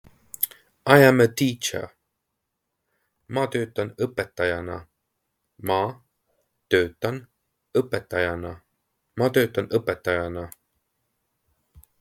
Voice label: I am a teacher, estonian; the talking estonian phrasebook

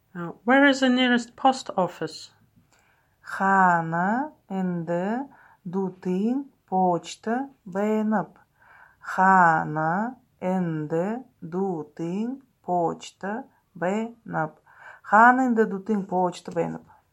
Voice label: Where is the nearest post office, Buryat

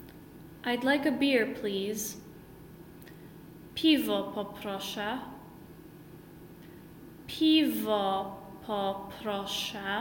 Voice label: Id like a beer please, polish; the talking polish phrasebook

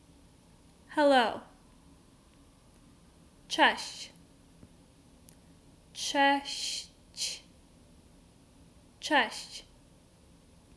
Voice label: Hello, polish; the talking polish phrasebook

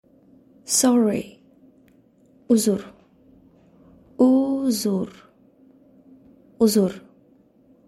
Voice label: Sorry, Tajik

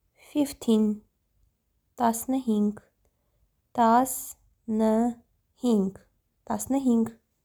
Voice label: "15 tasnyhing", Armenian

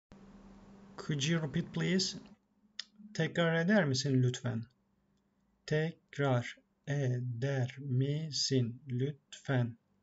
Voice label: could you repeat, turkish; the talking turkish phrasebook

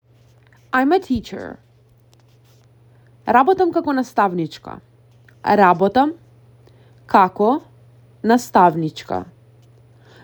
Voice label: I am a teacher, Macedonian; the talking macedonian phrasebook

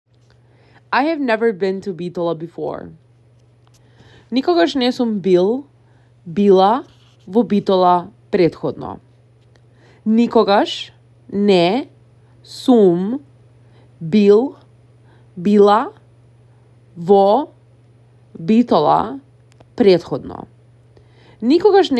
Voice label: I have never been to before, macedonian; I have never been to Bitola before; the talking macedonian phrasebook

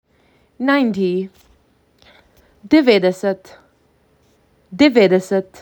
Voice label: MAC 6, macedonian phrasebook

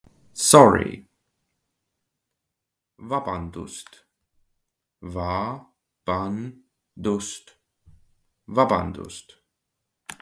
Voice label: Sorry, Estonian; the talking estonian phrasebook

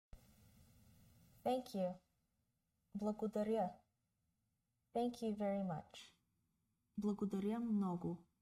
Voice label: BGN Thank you Thank you very much, Bulgarian; the talking bulgarian phrasebook

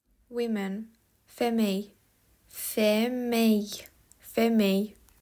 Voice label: "Women", romanian

